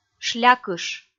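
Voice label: "шлякыш", Komi, female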